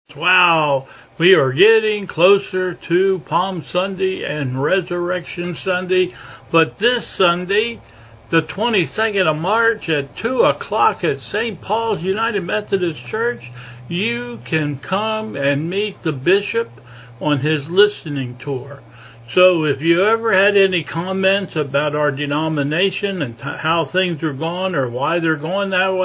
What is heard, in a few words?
Welcome and Announcements